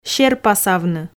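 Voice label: "серпасавны", Komi, female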